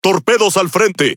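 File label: Spanish speech alarms torpedo ahead; World Of Warships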